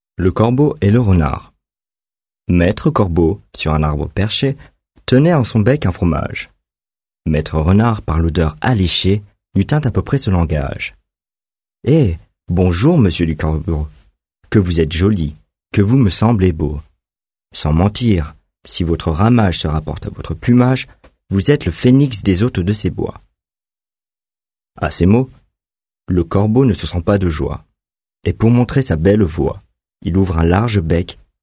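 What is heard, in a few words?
French, male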